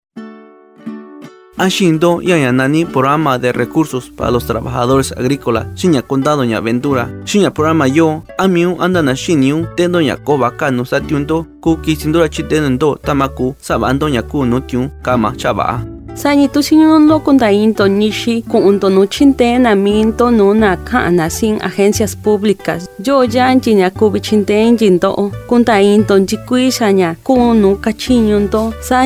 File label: Mixteco; FRP; MICOP